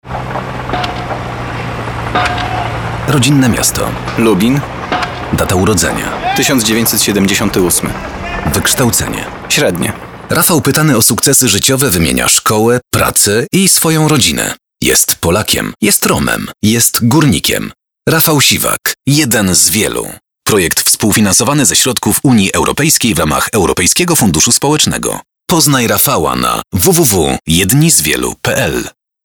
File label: radio